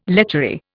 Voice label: "lechery", Indian